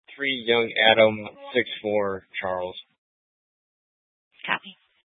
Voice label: Dispatch, scanner

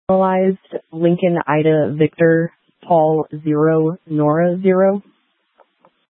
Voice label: Dispatch, scanner; Paradise Valley PD; H- Scottsdale

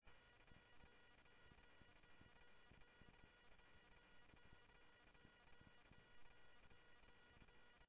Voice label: Sheriff, scanner audio

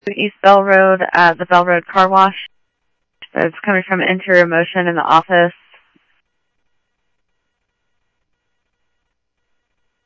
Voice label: Phoenix PD, scanner audio; ThompsonPeakHigh

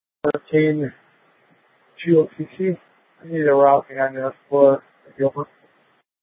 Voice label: Light Rail Ops, scanner

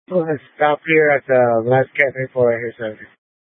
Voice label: Light Rail Emergency, scanner

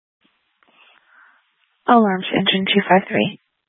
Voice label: Fire Departments, scanner

